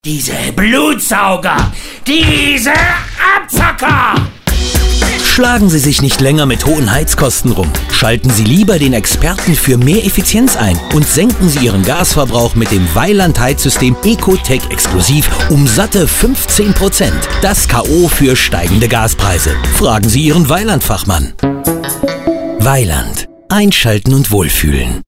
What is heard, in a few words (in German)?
spot; vaillant